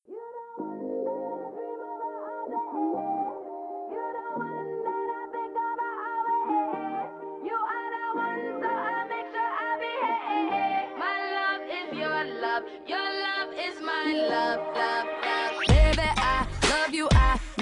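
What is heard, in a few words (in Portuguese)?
ANIV NAMORO male, telemensagem; ANIV NAMORO MASC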